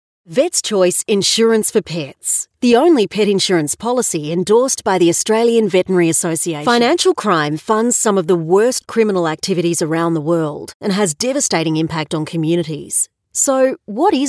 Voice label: Corporate